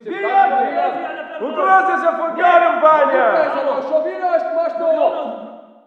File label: walla rus mountain battle